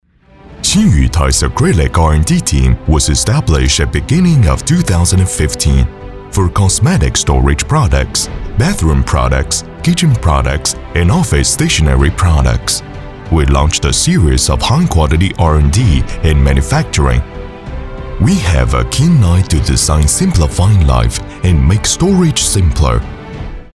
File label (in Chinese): 英文专题大气雄厚